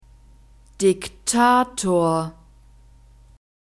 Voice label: "diktator", German; masculine